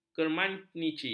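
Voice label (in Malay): "kermanici", Malay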